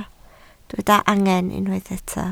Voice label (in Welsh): "angen", Welsh